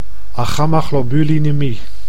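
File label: "aggamaglobulinemie", Dutch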